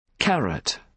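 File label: "carat", British English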